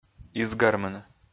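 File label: "изгармыны", Komi, male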